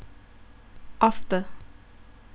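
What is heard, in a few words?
"after", Thai, female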